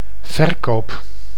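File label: "verkoop", Dutch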